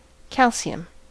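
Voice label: "calcium" English, American accent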